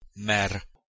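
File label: "mer", Proto-Indo-European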